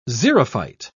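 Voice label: "xerophyte", British English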